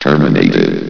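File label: "terminated", male synthesized announcer voice; hlvox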